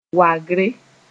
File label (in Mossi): "wagre", Mooré; meagre